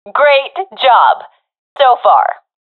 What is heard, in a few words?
round winning, professional fem; vo; Counter Strike Model Pack; CS:GO